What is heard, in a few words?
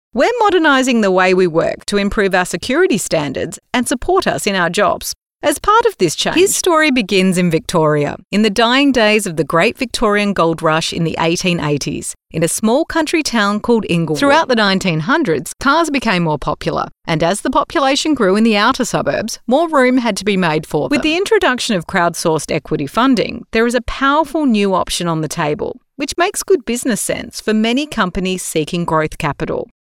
Natural